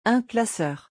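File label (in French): "un classeur", French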